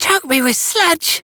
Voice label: Choke Me With Sludge, en; Minigames

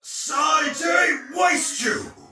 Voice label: Attack Heavy Soldier Male; Dialog; Barks; Battle; Sinecure

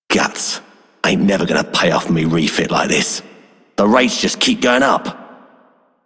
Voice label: Male Dreams, Male One, en; Dialog; Warframe; Solaris Venus

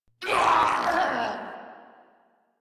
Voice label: Death Ghoul Saw, en; Grineer Ghoul Sawman

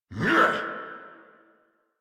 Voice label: angry, en; Warframe; Tyl Regor Boss; Efforts

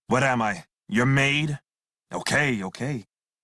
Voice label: Crew Accept Male en; Dialog; Warframe; Railjack Crew; Red Veil

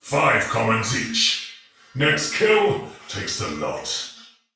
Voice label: Patrol spoken, male soldier; Dialog; Barks; Battle; Sinecure

